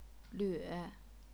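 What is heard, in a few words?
"lve", third tone, chinese pinyin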